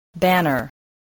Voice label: "banner", English